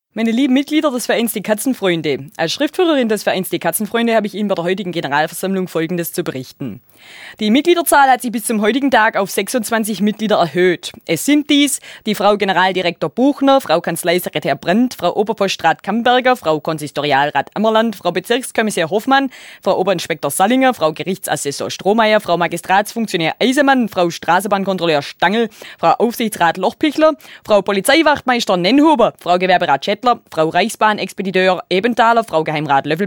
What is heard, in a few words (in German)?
Hörbuch schwäbisch